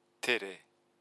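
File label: "tere", Māori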